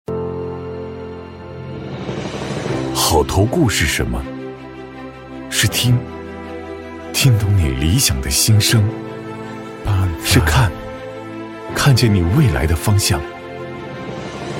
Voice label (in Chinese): 男 走心宣传 磁性自然; 厚重有力; 大气浑厚; 中信建投